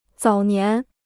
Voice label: "早年", Chinese